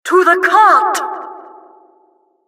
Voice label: announcer game starting

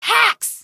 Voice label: hacks, female; Source Engine; video game; npc

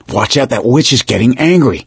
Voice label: witch getting angry; source engine; left 4 dead 2; survivor; gambler

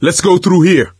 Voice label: misc directional, coach, survivor voice

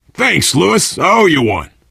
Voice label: thanks, player survivor voice biker

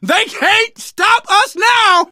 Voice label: hurrah, survivor voice; source engine; left 4 dead 2; manager

